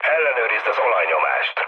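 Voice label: Hungarian damaged oil mood high, speech; War Thunder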